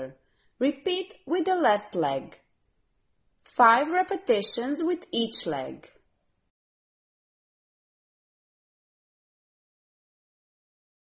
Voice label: lower body single leg hip rotation, Voice en; saumya yoga